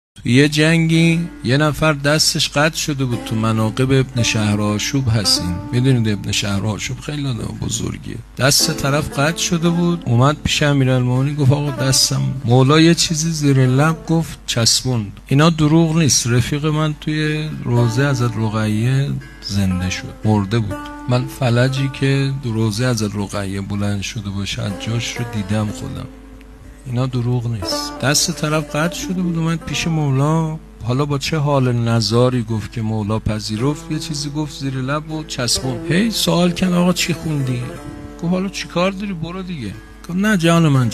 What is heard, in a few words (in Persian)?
سخنرانی; شیخ اسماعیل رمضانی